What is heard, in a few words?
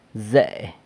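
"ze", corn on the cob, San Lucas Quiaviní Zapotec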